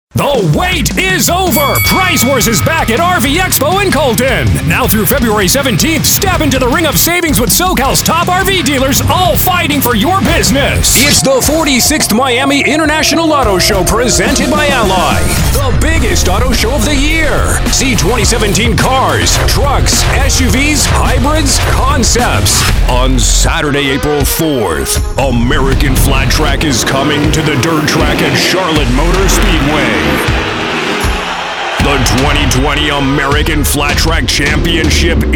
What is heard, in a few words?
High Energy